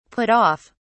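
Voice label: "put off", British English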